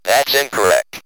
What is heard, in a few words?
"that's incorrect", Speak & Math Speech